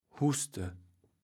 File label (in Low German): "huste", Platt